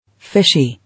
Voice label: "Fishy", English

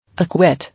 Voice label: "Acquit", English

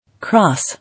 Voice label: "Cross" English

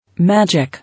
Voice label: "Magic", English

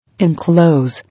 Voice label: "Enclose", English

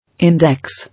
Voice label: "Index", English; pl. –dexes

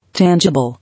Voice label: "Tangible", English

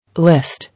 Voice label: "List", English

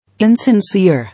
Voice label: "Insincere", English

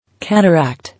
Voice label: "Cataract", English

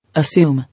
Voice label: "Assume", English

